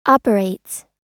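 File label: "operates", American English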